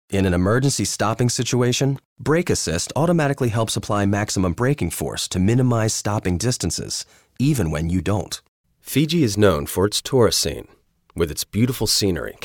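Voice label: American narration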